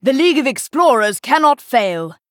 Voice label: Female Night Elf; Elise